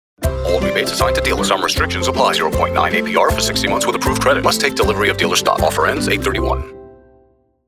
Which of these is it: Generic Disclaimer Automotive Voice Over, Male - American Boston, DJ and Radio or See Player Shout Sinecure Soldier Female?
Generic Disclaimer Automotive Voice Over